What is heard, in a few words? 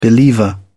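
"believer", British English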